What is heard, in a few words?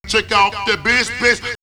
Vox Check Out D Bass, house rap vocals; X-Static Goldmine